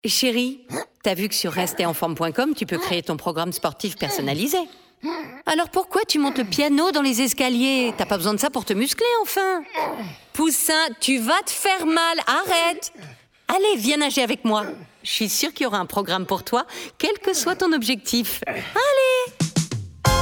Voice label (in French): pub comedie; rester en forme